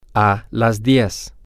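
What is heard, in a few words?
Spanish, ten o clock, male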